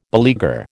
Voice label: "beleaguer", American